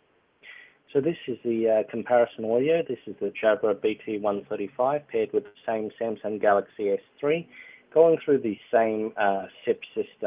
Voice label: SIP Test Jabra BT 135